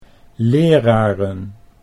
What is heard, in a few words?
"leraren", Dutch